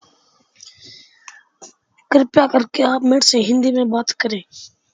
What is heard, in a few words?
voice message